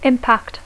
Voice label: "impact", English

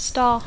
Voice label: "star", English

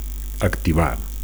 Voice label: "activar", Catalan; to activate

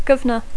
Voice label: "governor", English

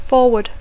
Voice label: "forward", English

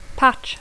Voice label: "patch", English; pedaç; verb tr conj apedaçar

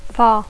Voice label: "far", English

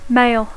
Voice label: "mail", English

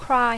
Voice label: "cry", English

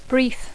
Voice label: "brief", English; adjectiu; breu

